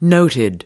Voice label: "noted", us